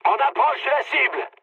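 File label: near waypoint, mood high, French; War Thunder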